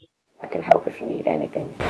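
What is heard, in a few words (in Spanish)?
Voice Acting, Spanish, female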